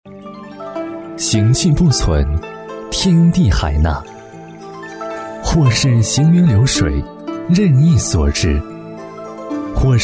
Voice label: 匠心品质广告

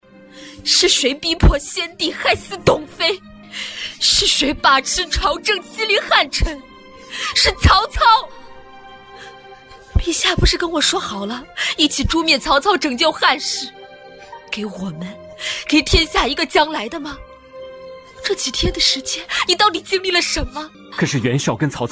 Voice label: 女性角色

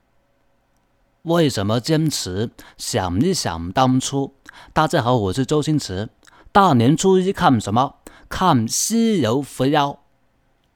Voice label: 模仿 港普; 周星驰

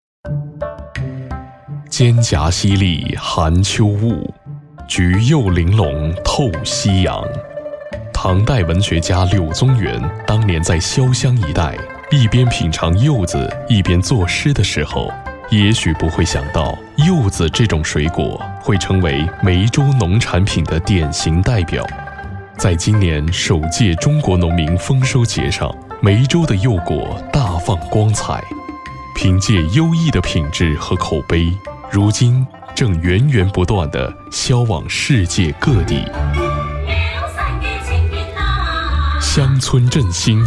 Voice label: 国语 专题 丰收节

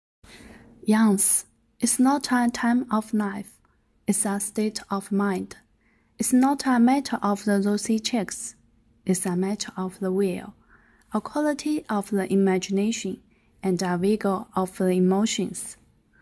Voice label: 英文

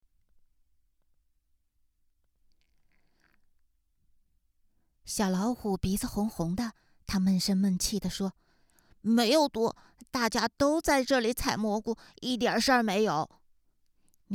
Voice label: 儿童读物配音

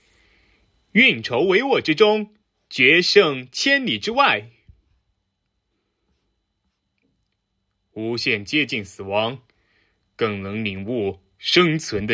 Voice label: 角色 配音

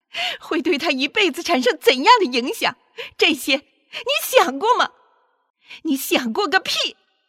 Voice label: 气愤

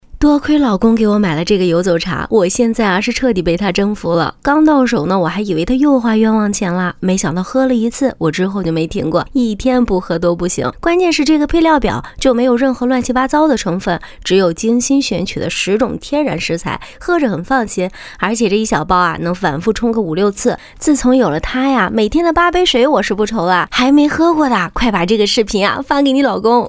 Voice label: 温柔叙述风

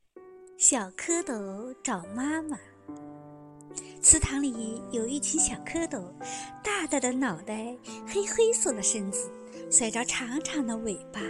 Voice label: 小蝌蚪找妈妈, 儿童故事, 普通话, 女, 中年

